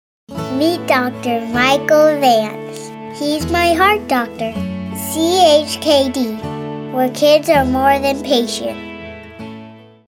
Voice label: Kid Next Door Real

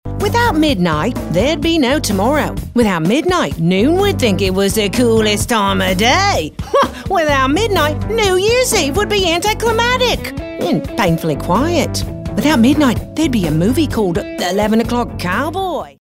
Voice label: british

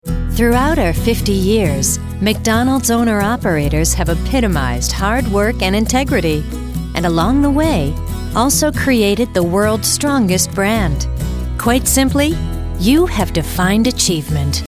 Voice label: Corporate Narration; McDonalds

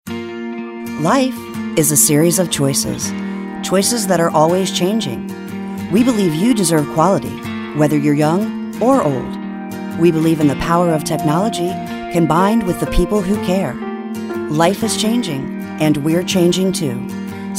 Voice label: warm

